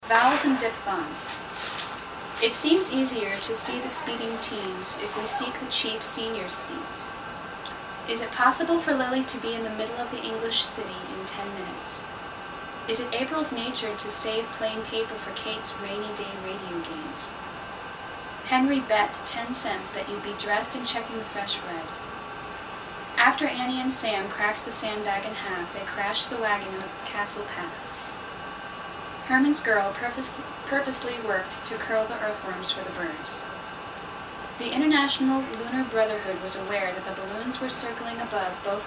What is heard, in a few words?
Ohio New Concord Study Sentences; usa